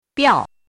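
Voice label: "biào", Mandarin Chinese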